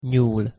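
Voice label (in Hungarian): "nyul", Hungarian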